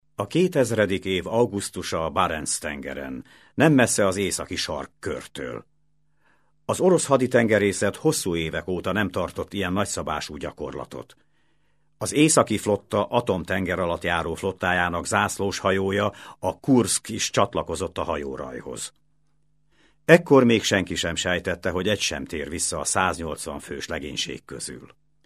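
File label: hungarian male voice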